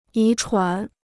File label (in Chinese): "遗传", Chinese